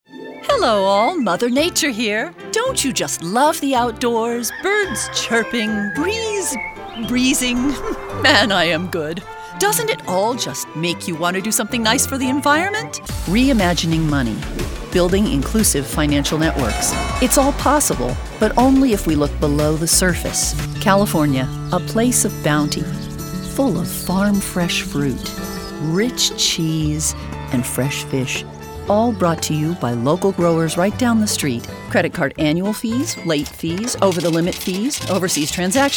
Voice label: Commercial